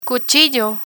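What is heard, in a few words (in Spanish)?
"cuchillo", Spanish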